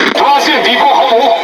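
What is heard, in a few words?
Speech Detection Enemy Aircarrier, Chinese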